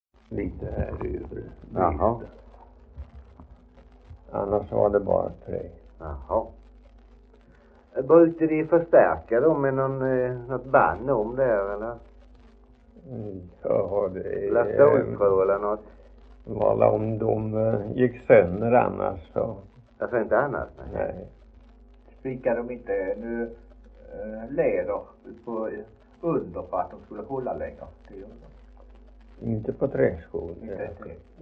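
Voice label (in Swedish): Idala Halland, dialekter; Folkminnen; Svenska sagor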